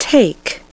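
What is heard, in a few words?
"take", English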